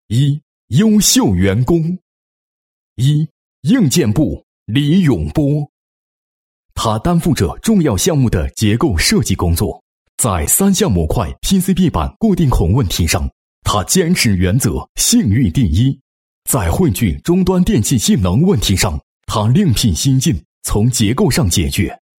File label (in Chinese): 男-颁奖-年会颁奖词, 配音案例; 中电年会颁奖词